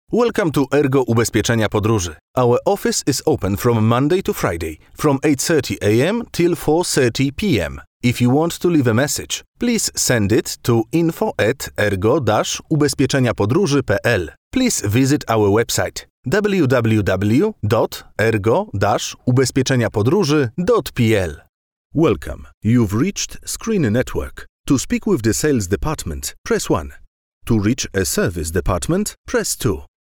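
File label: Polish-English, male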